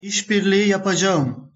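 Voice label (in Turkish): "işbirliği yapacağım", Turkish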